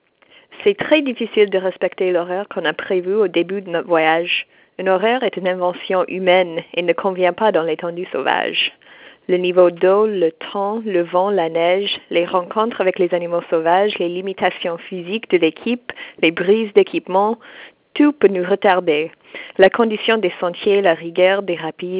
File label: voice message